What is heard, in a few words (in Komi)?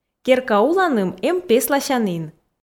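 керка уланым эм песласянін, Komi, female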